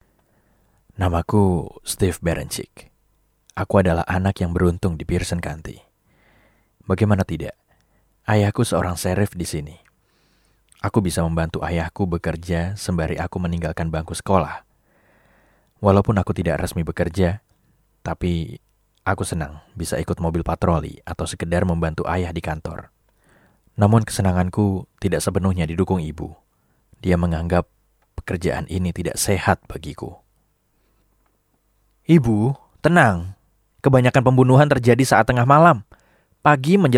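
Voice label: Bahasa Indonesia Audiobook; Intisari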